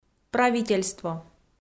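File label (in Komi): "правительство" Komi, female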